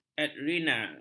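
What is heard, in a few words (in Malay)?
"adrenal", Malay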